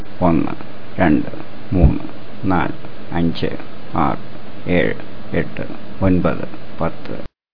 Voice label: malayalam numbers

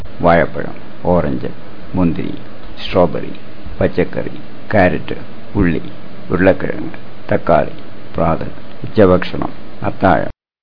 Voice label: malayalam food

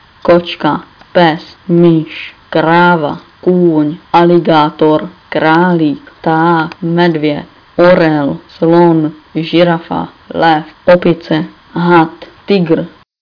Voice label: czech; animals

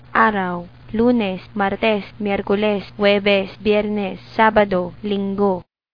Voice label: filipino

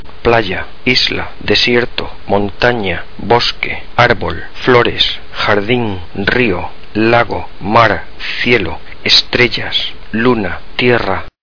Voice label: Spanish nature

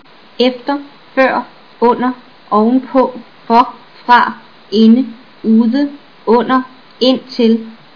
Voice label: danish; words

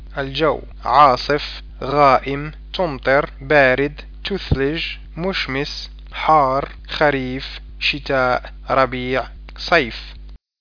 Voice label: arabic; weather

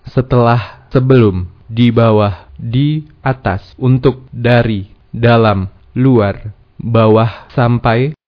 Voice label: indonesian words

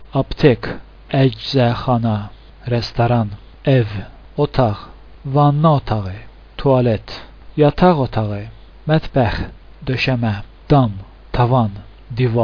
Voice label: azerbaijani

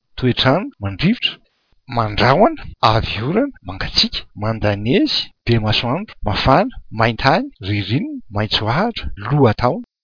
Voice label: malagasy weather

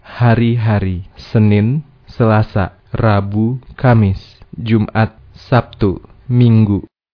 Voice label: indonesian days

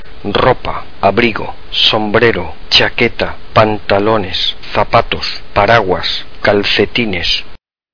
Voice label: Spanish clothes

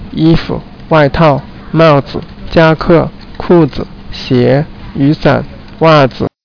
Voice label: chinese; clothes

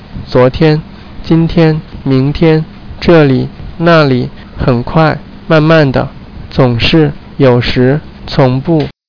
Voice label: chinese

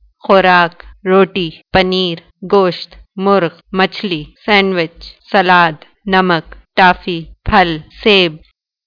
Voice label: Urdu food

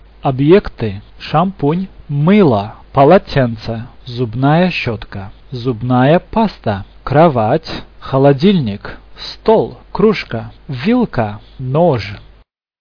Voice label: russian objects

